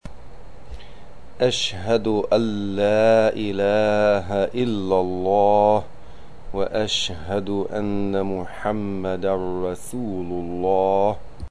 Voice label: shahaadah arabic